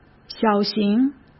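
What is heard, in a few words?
"小型", Mandarin Chinese; chinese-flashcards; hsk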